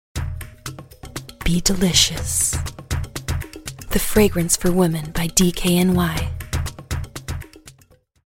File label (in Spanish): Seductora EN